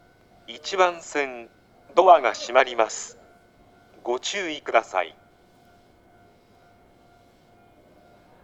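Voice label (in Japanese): 戸閉放送, 旭型放送(男声); 通常時・簡易時共に共通のイントネーション; 牛浜; 青梅線; スピーカー JVC横長型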